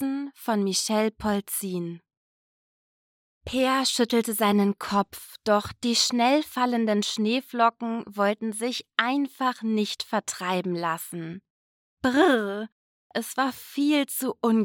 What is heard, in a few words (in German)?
Das grosse Blau, hoerbuchsprecherinnen